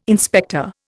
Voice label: "inspector", Indian

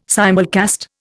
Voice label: "simulcast", Indian

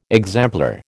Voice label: "exemplar", American

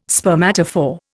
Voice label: "spermatophore", Indian

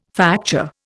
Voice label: "facture", Indian

